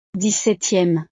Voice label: "dix-septieme", frances

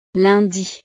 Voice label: "lundi", frances

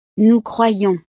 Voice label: "nous croyons", frances

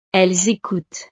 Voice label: "elles ecoutent", frances

